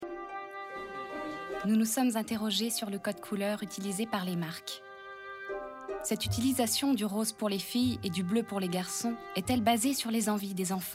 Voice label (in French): Voix off doc / mag